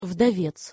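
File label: "vdovec", Russian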